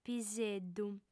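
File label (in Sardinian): "piseddu", female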